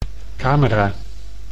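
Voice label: "camera", Dutch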